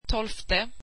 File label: swedish twelfth